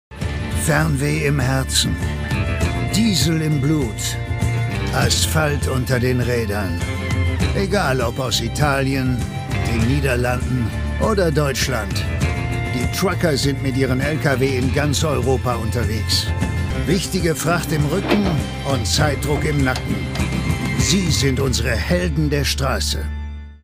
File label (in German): Kommentar, maenner; EuroTruckers